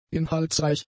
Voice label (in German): "inhaltsreich", German; adjectives